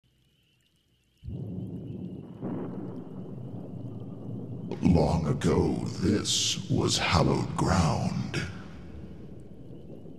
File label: Voice Only, voiceover; Revenants Lair